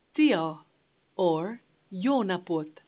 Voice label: Hungarian, hello